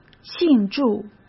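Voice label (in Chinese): "庆祝", Mandarin Chinese; hsk; chinese-flashcards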